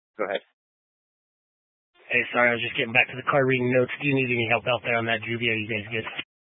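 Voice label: sheriff dispatch radio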